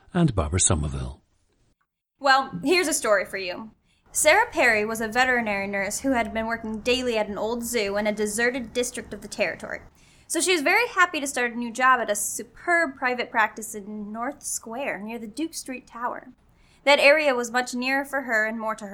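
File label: michigan, International Dialects of English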